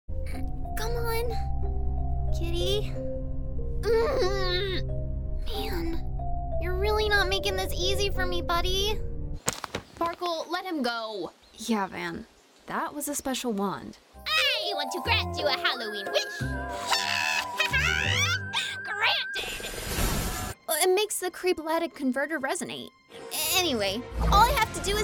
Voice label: animation reel; voiceover artist